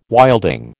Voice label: "wilding", American